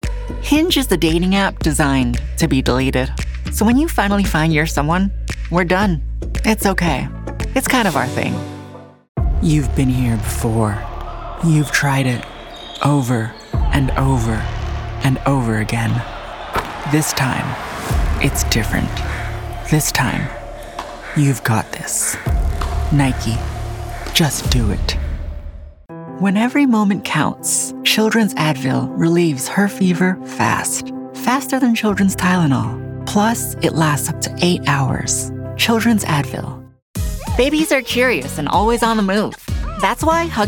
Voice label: Commercial